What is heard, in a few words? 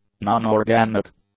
"non-organic", english; adjectives